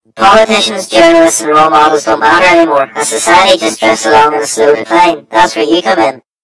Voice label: story voiceover; yumpod